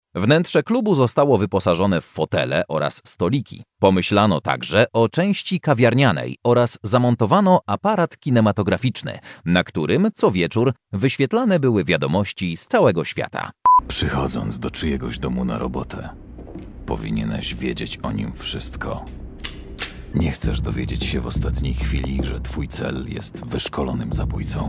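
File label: Polish, male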